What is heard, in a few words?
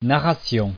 narration